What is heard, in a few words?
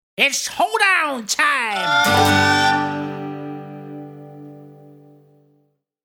Hill Billy voice saying ‘It's Hoe-down Time’ with banjo, guitar and fiddle; Hill Billy voice saying ‘It's Hoe-down Time’ with banjo, guitar and fiddle intro.; Holidays